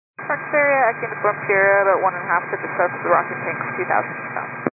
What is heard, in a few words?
scanner radio 122.925 MHz